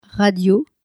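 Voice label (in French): "radio", French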